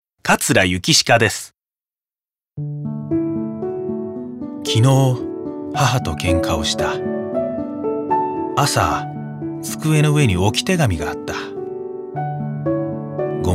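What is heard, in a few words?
commercial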